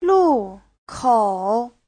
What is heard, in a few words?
"lu4 kou3", chinese